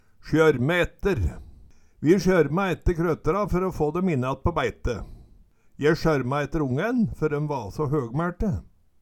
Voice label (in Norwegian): "sjørme etter", Numedalsmål; Vi sjørma etter krøtra fer å få døm innattpå bæite. Je sjørma etter ongæn fer døm va så høgmæL